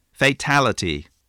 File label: "fatality", voice